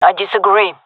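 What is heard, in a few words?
disagree, gendarmerie female; vo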